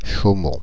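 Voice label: "Chaumont", French; Paris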